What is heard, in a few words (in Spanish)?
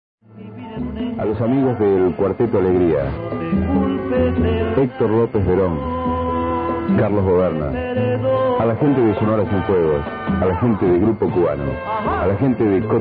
Radio Sport Montevideo; CX18; URG